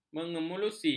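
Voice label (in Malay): "mengemulsi", Malay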